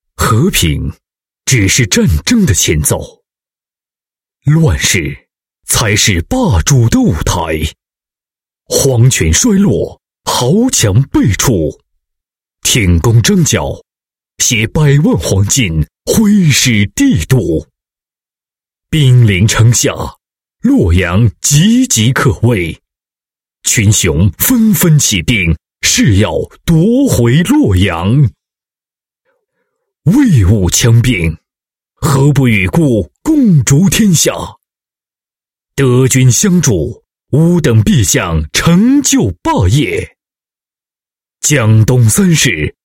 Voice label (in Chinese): 男 广告 游戏宣传广告, 国语男声配音